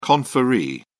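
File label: "conferee", British English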